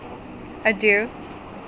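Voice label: "adieu", Canadian, female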